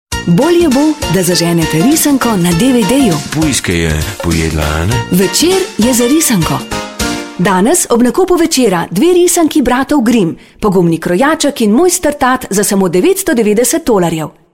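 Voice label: slovenian female commercial; voice demos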